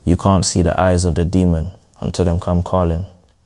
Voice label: upset; fertig classic games